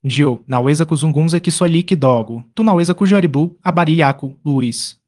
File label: swahili reply